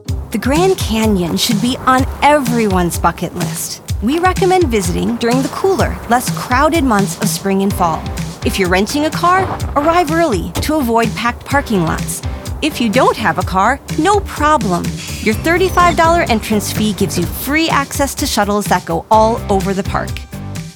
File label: Travel Guide Narration